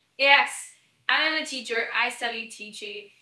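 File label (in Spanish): Voice Acting es F, Spanish, female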